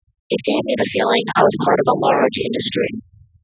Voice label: babble; IBM; wsj0